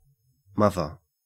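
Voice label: "mother", Australian English; pronunciations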